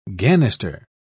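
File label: "ganister", English, British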